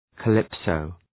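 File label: "calypso", English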